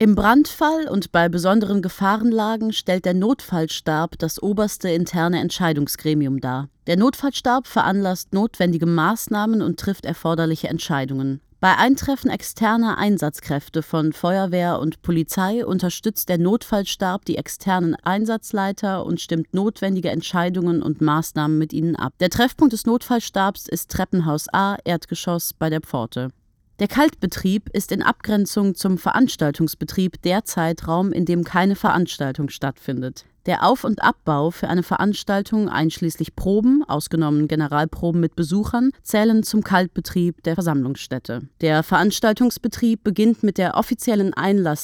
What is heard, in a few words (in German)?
Notansage, Sprecherin; ARTE MEDIATHEK